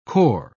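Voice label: "kor", British English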